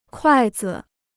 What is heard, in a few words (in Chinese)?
"筷子", Chinese